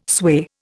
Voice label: "sway", Indian

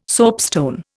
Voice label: "soapstone", Indian

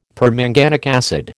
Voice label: "permanganic acid", American

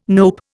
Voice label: "nope", Indian

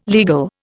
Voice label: "legal", Indian

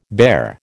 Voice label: "bare", American

